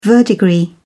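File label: "verdigris", British English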